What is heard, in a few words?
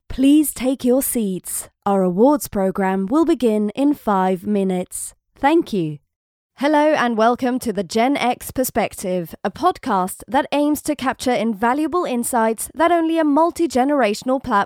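Norwegian, English, female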